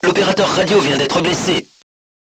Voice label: voice message machine gunner wounded, crew tanks french